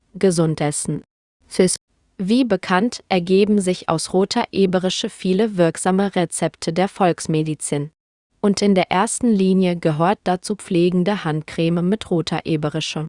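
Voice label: de-DE neutral speech, German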